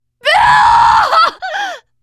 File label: grief, teen girl